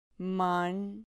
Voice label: "man", Spanish syllable

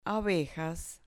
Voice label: "abejas", Spanish

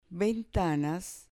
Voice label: "ventanas", Spanish

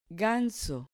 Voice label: "ganso", Spanish

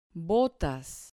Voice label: "botas", Spanish

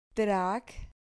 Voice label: "trac", Spanish syllable

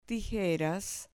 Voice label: "tijeras" Spanish

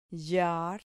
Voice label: "llar", Spanish syllable

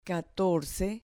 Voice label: "catorce", Spanish

